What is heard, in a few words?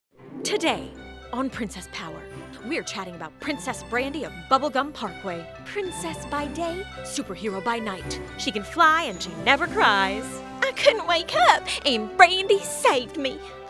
voiceover character reel